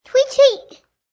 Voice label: Toddler says "Tweet Tweet", Toddler nonsense sound.; Talking; Voice; Talking Sound Effects